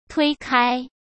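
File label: "推开", Mandarin Chinese; chinese-flashcards; hsk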